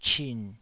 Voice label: "chin3", taiwanese